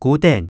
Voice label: 跍等, 客語

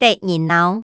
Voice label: "得人惱", 客語

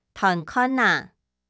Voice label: 揣看啊, 客語

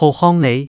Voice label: 好䟘哩, 客語

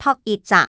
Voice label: "擇一隻", 客語

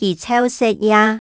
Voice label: 佢湊食夜, 客語

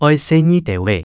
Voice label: 愛細義兜仔, 客語

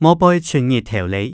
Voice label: 外背出日頭哩, 客語

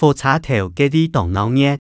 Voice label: 火車頭該位當鬧熱, 客語

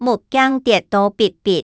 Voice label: 目鏡跌到必必, 客語

